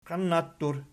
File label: "qiannattur", Archi